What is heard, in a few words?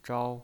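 "zhao" Mandarin Chinese pinyin voice, first tone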